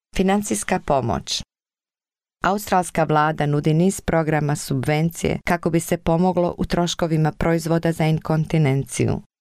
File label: Croatian, Financial Assistance; Continence Foundation